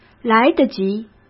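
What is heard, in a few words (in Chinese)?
"来得及", Mandarin Chinese; hsk; chinese-flashcards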